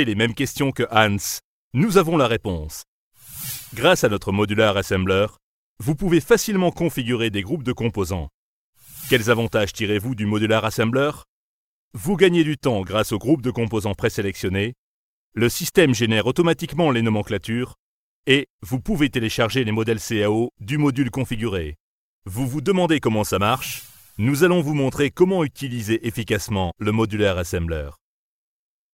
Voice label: 2 Voix rigolo puis grave tutoriel; Misumi Modular Assembler

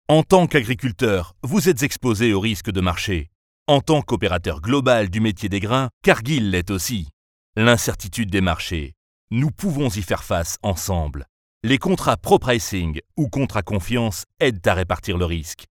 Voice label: Voix grave commercial; Cargill

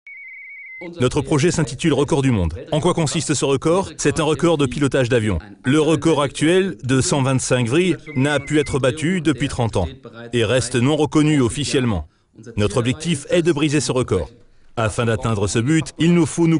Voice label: Voix grave naturelle doublage allemand

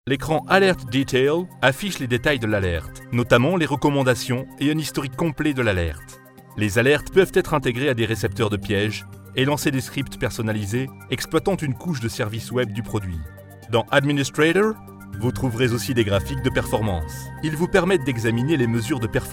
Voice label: Voix grave explicative elearning; VM Manager Solar Winds

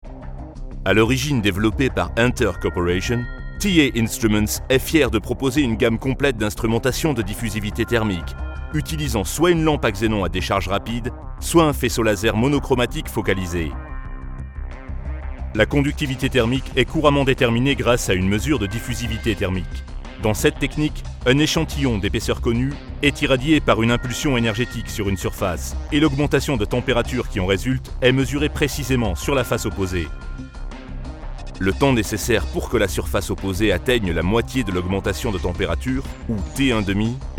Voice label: Voix grave technologie; TA Instruments

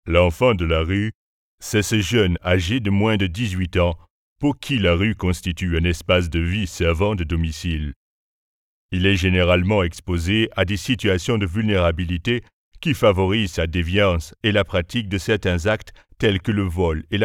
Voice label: voix grave journalistique accent camerounais; Enfants Rue

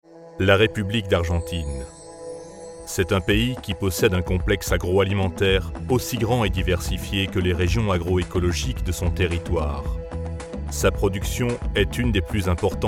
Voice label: Voix grave lente docu; INTA

